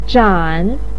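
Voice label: "zhan3", Mandarin Chinese pinyin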